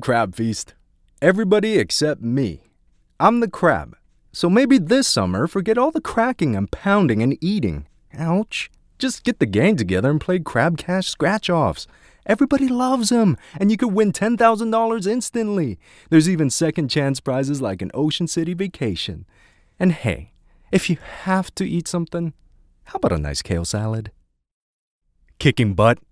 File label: commercial voice over